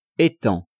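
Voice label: "etant", French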